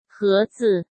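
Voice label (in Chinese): "盒子", Mandarin Chinese; hsk; chinese-flashcards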